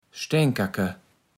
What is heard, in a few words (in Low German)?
"Stänkerke", platt